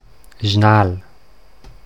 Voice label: "Senale" Mundart

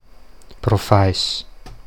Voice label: Proveis Mundart

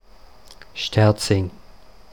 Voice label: Sterzing Standard

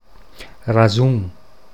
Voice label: Rasun Mundart

